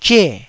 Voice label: "zhe4", Taiwanese syllable